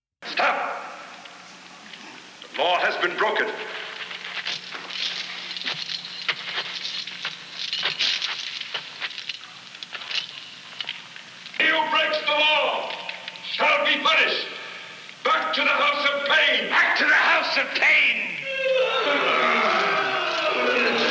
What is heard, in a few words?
Commercial